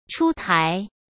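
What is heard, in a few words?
"出台", Mandarin Chinese; chinese flashcards; hsk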